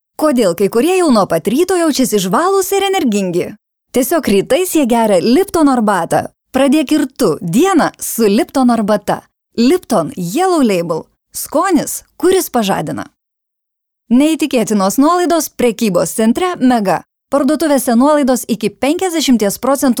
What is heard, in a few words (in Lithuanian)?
reklama